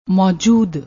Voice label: "maoujood", Punjabi